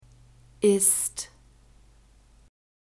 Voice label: "ist", German